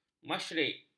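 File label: "masyrik", Malay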